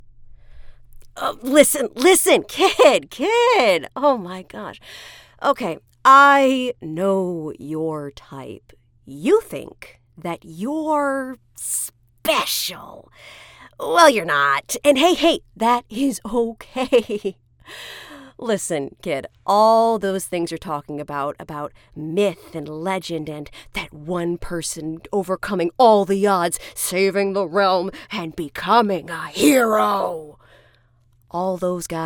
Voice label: Brutally Honest Mentor